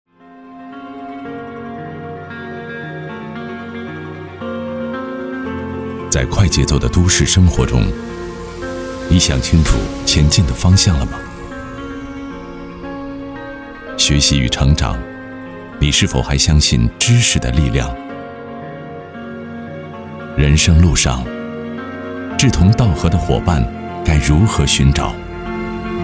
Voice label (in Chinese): 有格调潮流; 人生